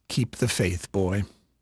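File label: English male, voice; Pirates Of The Caribbean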